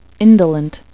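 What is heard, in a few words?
"indolent", English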